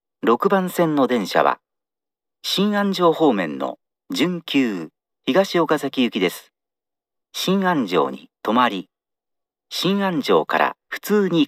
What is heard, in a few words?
arrival semi local, st announce; higashiokazaki; shinanjo; meitetsu; chiryust